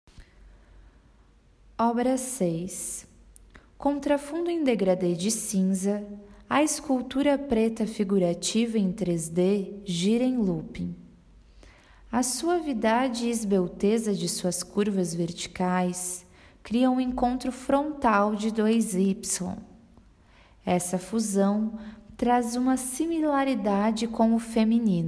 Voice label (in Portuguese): Audiodescricao; Exposição; Galeria Virtual